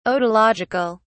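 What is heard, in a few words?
"otological", British English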